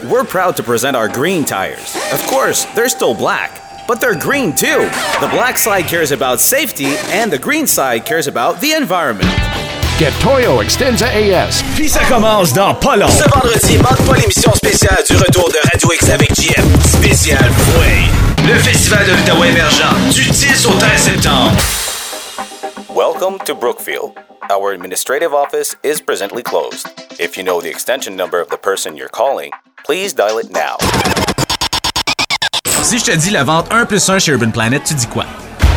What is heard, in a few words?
VOICE EN FR